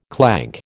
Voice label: "clank", American